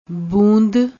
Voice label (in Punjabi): "boond", Punjabi